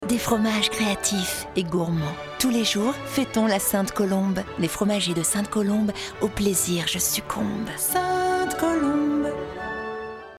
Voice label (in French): Voix off et Signature Douce sensuelle, comédienne; Sainte Colombe